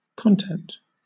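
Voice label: "content", British English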